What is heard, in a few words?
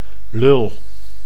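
"lul", Dutch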